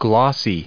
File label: "glossy", us